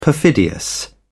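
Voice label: "perfidious", British English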